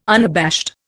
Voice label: "unabashed", Indian

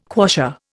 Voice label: "quassia", Indian

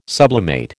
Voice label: "sublimate", American

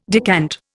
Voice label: "decant", Indian

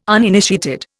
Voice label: "uninitiated", Indian

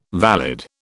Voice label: "valid", British

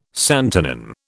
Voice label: "santonin", British

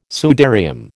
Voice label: "sudarium", American

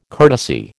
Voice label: "courtesy", American

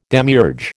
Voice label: "demiurge", American

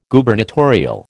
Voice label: "Gubernatorial", American

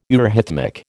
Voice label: "eurhythmic", American

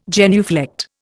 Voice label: "genuflect", Indian

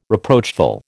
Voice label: "reproachful", American

